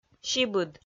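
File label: "сибыд", Komi, female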